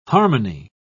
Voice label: "harmony", pronounce

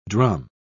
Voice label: "drum", pronounce

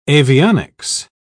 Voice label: "avionics", pronounce

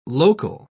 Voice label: "local", pronounce

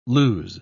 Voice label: "lose", pronounce

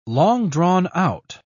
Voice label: "long-drawn-out", pronounce

